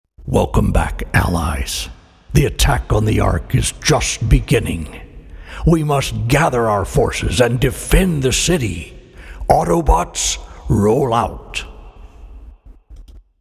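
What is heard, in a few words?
Video Games, male voice; Transformer/Overlord W Efx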